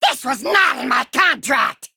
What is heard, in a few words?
Male Demon